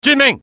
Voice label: yes sir, cantonese male; XBOX; enemy